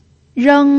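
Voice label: "reng" Mandarin Chinese, first tone, pinyin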